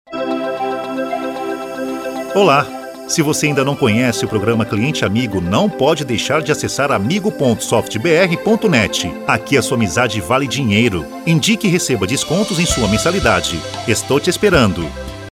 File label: portuguese brazilian ivr